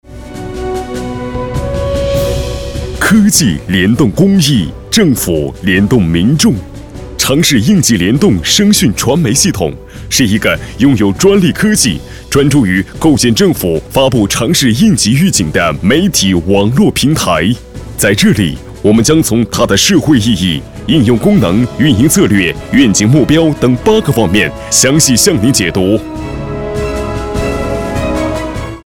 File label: boy, Chinese, ppt; yingjiliandong